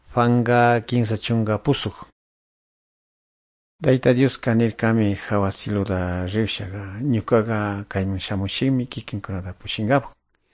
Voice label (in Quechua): good news quichua de calderon